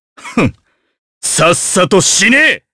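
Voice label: voice, Japanese; Kain; kingsraid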